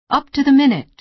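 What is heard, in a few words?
"up-to-the-minute", American English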